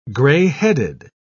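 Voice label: "gray-headed", British English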